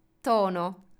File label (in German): "tono", Italienisch; Standard-Italienisch